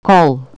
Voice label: pole, Bulgarian Consonants

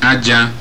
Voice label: sand, Igbo

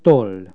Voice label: feather, hungarian

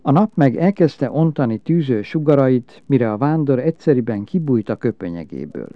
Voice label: narrative, hungarian

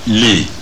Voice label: bury, Igbo

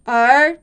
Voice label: rhotic vowel isolated, mandarin vowels

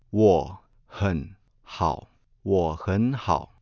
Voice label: sandhi 3, mandarin tones

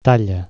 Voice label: "size", Catalan

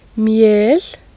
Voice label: "mjel", icelandic

